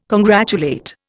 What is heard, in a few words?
"congratulate", Indian